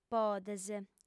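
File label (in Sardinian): "podes", female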